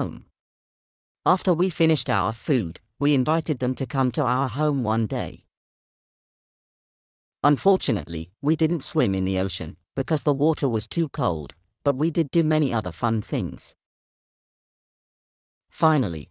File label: uk vancouver holiday